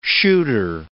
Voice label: "shooter", English